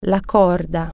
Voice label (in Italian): "rope", Italian